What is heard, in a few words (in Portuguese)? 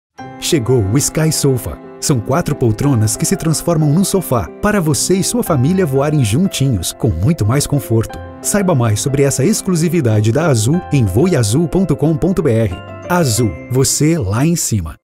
Portuguese Brazil